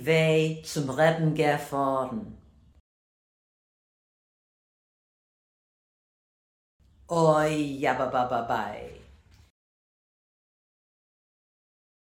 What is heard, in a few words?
pronunciation drill; maskilim medley